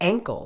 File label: "ankle", Medical English